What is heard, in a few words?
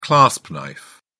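"clasp knife", British English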